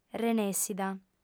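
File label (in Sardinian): "renèssida", female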